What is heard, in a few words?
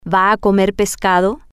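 are You Going To Eat Fish, Spanish, female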